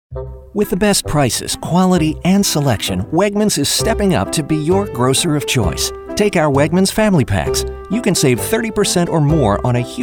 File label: 30s 40s Male Commercial